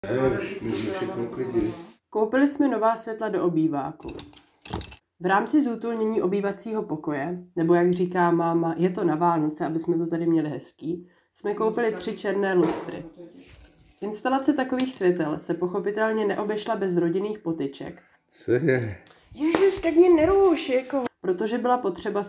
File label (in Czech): audiozurna narace